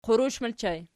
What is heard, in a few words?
"qurush", Archi